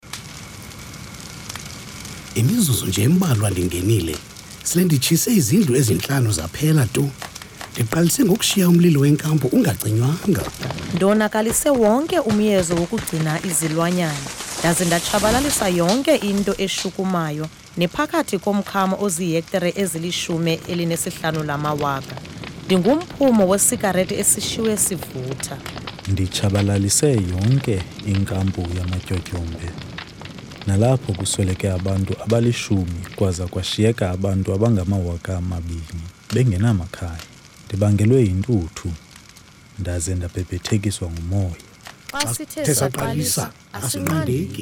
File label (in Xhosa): radio advert isixhosa; Fire Brigade Services; psa